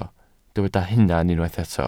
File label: "hunan", Welsh